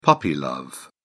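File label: "puppy love", British English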